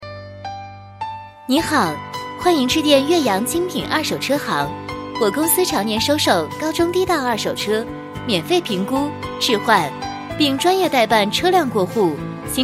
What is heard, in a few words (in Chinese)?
女 样音